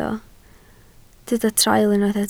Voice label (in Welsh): "traul", Welsh